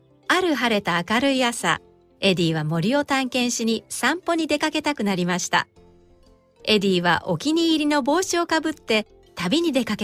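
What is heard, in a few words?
Japanese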